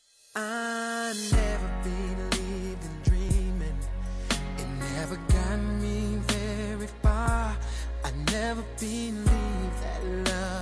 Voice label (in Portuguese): Romântica Estou Atraída por Você